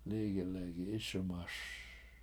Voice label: "lIIgilegi 7IS1maS", washo

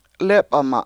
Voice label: lEp7ama7 I Got There, Washo

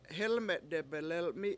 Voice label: three willow basket, Washo; hElme7 debelel7mi7

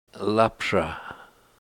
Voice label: "lapysh", Washo, my body

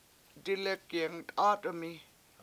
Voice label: "dIlek yengt$AAt$1mi" ducks all scattered, Washo

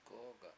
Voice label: "length", Washo

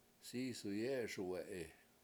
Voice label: "sIs1w yE7eSuwE7i", washo; bird flies away

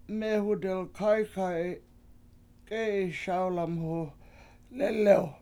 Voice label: "mEEhu dalkAykayi7 k7E7i SAwlamhu lElew", washo

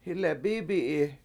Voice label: They All Came They Are All Coming, Washo

